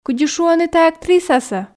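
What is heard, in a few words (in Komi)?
кыдзи шуӧны тайӧ актрисасӧ, Komi, female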